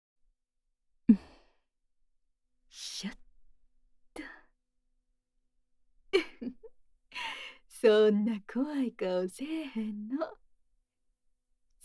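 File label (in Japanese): とろとろ耳舐め・京都弁寸止め射精管理（囁きブースト 効果音あり）; 無限射精寸止め地獄; 射精音なし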